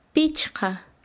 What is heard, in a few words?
Chanka, quechua; cinco